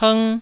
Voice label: "png1", taiwanese

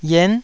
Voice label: "yen9", taiwanese

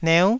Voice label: "neu9", taiwanese syllables

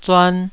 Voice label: "zuan2", taiwanese

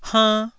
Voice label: "hann1", taiwanese

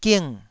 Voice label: "ging4", Taiwanese syllable

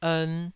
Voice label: "ern2", taiwanese syllable

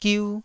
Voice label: "giu1", taiwanese

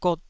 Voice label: "got2", taiwanese

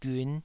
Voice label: "giun5", taiwanese

